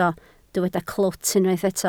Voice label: "clwt", Welsh